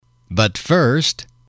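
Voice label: but First, english, male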